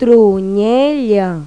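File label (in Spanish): "trenza", Spanish; Pipo-Aprende musica con Pipo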